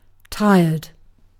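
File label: "tired", British English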